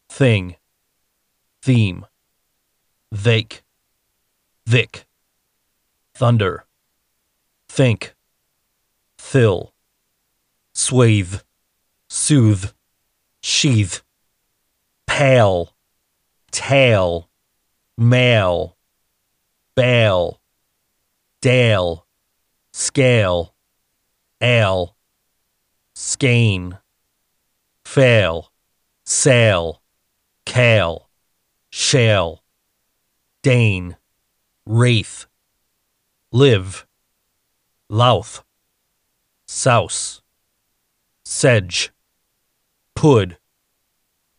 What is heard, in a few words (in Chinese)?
外籍男英 外语 美式英语 课件单词类